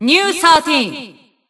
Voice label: System Voice; BBCP; Bullet